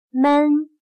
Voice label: "闷", chino

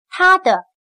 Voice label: "他的", chino

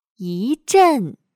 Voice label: "一阵", chino

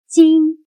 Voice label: "金", chino

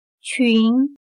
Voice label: "裙", chino

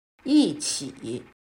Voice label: "一起", chino

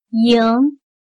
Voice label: "迎", chino

